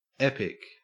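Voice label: "epic", Australian English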